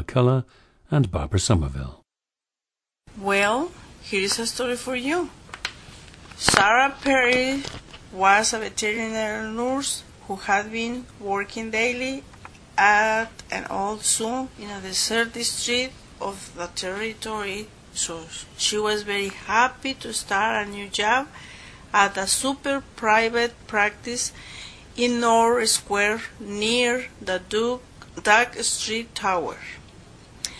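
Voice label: guanajuato, International Dialects of English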